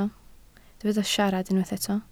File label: "siarad", Welsh